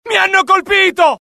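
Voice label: alert, Italian; mohaa; dialogue; sighted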